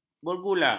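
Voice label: "bergula", Malay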